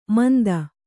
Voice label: "ಮಂದ", kannada

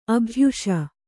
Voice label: "ಅಭ್ಯಾಸ", kannada

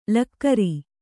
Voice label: "ಳಕಾರ", kannada

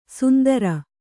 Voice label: "ಸುಂದರ", Kannada

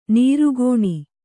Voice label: "ನಿರ್ಗುಣ", kannada

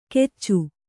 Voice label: "ಕೆಚ್ಚು", Kannada

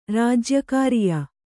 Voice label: "ರಾಜ್ಯಕಾರಿಯ", Kannada

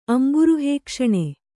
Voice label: "ಅಂಬುರುಹೇಕ್ಷಣೆ", Kannada

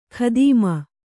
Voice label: "ಖದೀಮ", Kannada

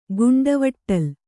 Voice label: "ಗುಂಡವಟ್ಟಲ್", Kannada

